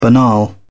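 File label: "banal", British English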